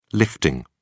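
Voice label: "lifting", British English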